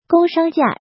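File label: "Gōngshāng jià", Chinese; Tu vung nghi phep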